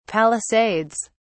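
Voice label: "palisades", British English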